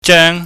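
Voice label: "jeung", Cantonese, high falling tone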